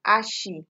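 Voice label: "ashi", Nigerian Pidgin, female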